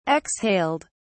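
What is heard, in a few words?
"exhaled", British English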